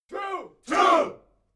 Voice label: military shout english two